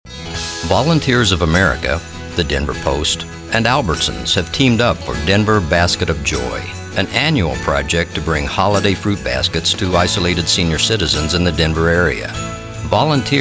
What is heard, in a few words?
corporate